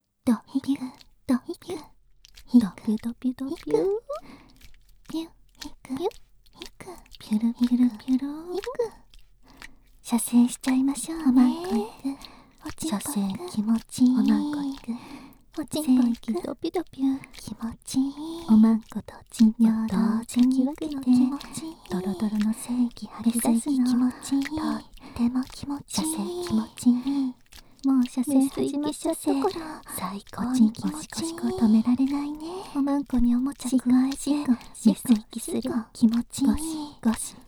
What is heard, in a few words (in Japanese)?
淫語と擬音, ASMR; オスイキフィニッシュ; 射精誘導